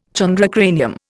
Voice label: "chondrocranium", Indian